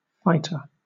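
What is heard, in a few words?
"fighter", British English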